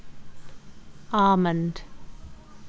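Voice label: "almond", British English, female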